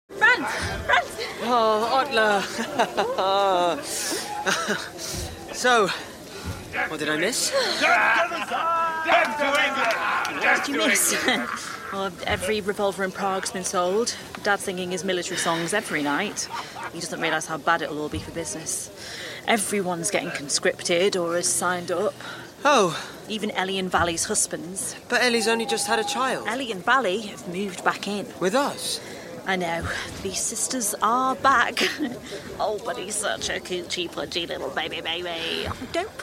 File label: Drama